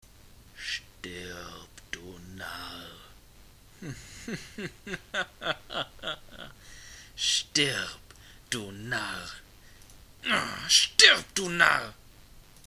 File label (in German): Narr